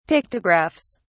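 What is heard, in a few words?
"pictographs", British English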